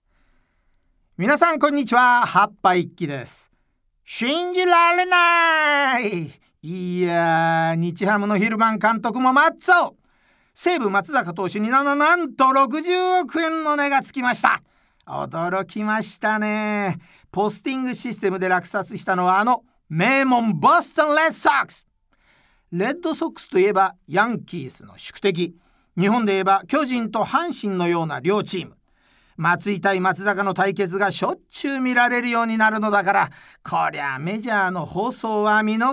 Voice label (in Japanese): フリートーク